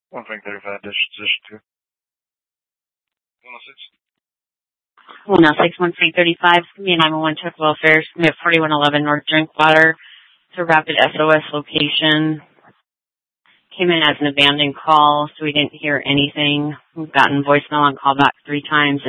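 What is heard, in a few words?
Scottsdale Police South Dispatch; Regional Wrlss Cooperative (RWC) - Smlcst H- Scttsdle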